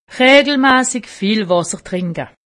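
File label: "wasser", Alsatian; medecine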